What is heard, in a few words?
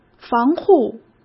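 "防护", Mandarin Chinese; chinese flashcards; hsk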